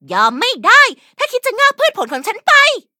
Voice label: levelup, th

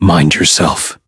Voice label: touch, en; cookie run

